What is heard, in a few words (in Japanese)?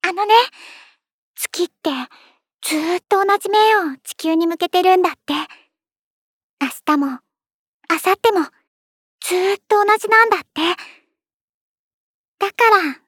少女